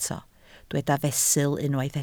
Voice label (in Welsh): "fesul", Welsh